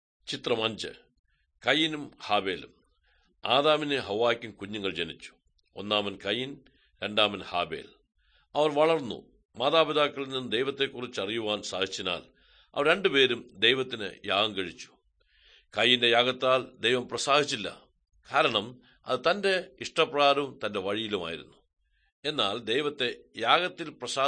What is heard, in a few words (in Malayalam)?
good news malayalam, drama